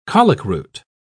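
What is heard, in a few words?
"colicroot", British English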